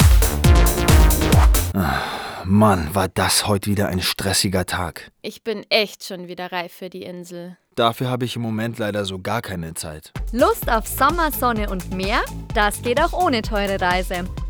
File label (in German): Werbung